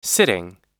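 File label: "sitting", American English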